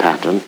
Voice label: LoFi Words, LoFi Vocals

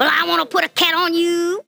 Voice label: LoFi Phrases, LoFi Vocals; Vengeance Samples

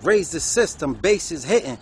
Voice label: RAISE THE SYSTEM BASS IS HITTIN, Hip-Hop Vocals

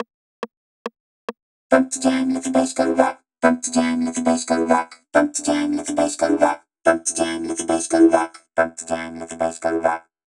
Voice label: Pump the Jam (E - 140BPM), Shouts; Vengeance Samples

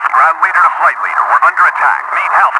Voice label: LoFi Phrases, LoFi Vocals; Vengeance Samples

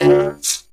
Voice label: Vocoder Words; Vengeance Samples

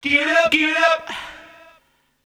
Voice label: male fx vox, vocal house, e; PS-28 Vocal House Samples

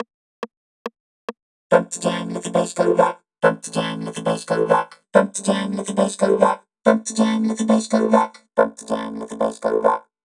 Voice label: (A - 140BPM), Shouts; Vengeance Samples

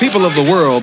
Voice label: LoFi Phrases, LoFi Vocals; Vengeance Samples

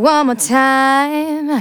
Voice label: phrase female

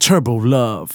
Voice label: Phrase Male Rap; Vengeance Samples

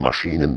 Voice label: LoFi Words, LoFi Vocals

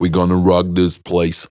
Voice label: Phrase Pitched; Vengeance Samples

